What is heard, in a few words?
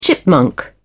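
"chipmunk", English, British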